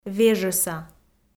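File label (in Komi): "вежӧса", Komi, female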